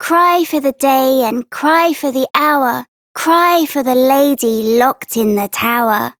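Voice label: Child Rhymes Sad Child Female; Duviri; Childrens Rhymes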